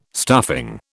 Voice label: "stuffing", British